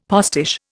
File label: "postiche", Indian; Dictionary